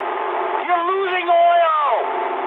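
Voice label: damaged oil mood high, speech English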